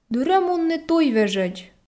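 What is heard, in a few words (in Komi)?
"дыр-ӧ мунны туйвежӧдз", Komi, female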